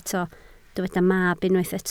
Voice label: "mab", Welsh